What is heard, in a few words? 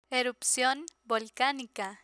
"erupcion volcanica", Spanish